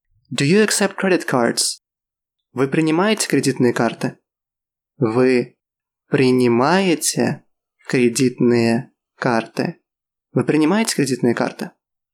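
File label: Russian, Do you accept credit cards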